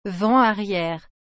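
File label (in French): "vent arriere", French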